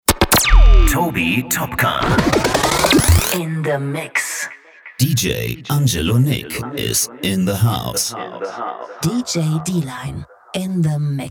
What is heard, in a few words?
DJ Drop